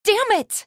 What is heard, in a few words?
pain death; female